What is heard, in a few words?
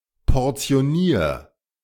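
"portionier", German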